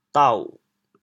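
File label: "dao6", Teochew, chaoyang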